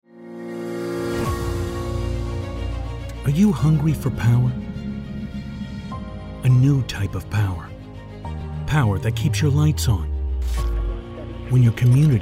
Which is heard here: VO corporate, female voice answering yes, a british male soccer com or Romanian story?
VO corporate